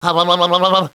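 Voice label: "owowowow", male; DS; ML BOWSERS INSIDE; Luigi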